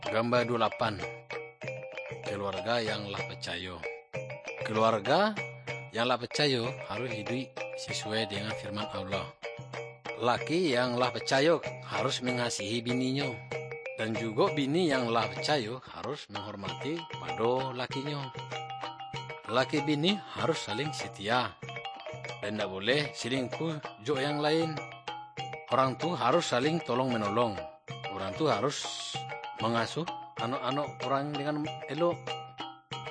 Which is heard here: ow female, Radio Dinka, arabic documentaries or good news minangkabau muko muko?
good news minangkabau muko muko